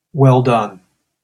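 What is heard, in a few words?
pronunciation "well done", English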